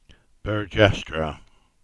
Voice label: Cornish; Buriton